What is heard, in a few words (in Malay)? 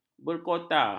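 "berkota", Malay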